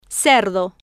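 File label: "cerdo" Spanish, female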